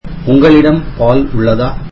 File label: tamil do you have milk